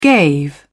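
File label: "gave", British English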